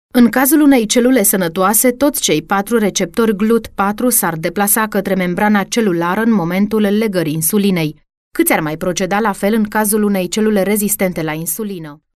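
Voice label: RO F narration, Romanian, female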